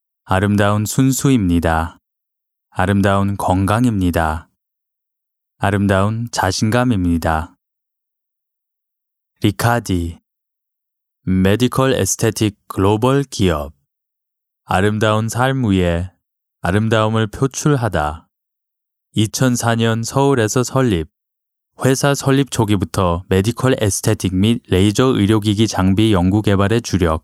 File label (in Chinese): 外籍韩语男, 化妆品广告; 日化